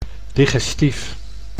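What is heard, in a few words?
"digestief", Dutch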